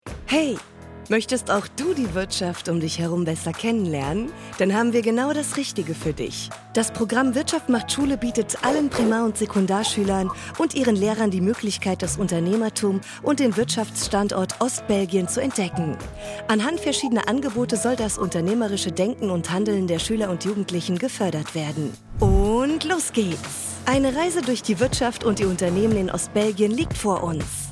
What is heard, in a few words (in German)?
Erklärvideo; Belgien; wirtschaft macht schule